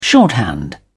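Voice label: "shorthand", British English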